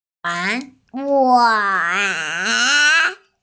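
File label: "wan2", Mandarin Chinese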